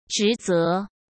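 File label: "职责", Mandarin Chinese; chinese-flashcards; hsk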